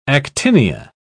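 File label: "actinia", British English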